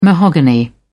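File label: "mahogany", British English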